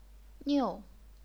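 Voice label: "niu", fourth tone, chinese pinyin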